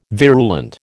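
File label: "virulent", American English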